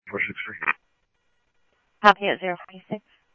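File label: Mesa Police Department, scanner audio; MESA PD 1 Fiesta MesaPoliceDep; Mesa Simulcast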